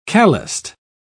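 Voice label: "calloused", British English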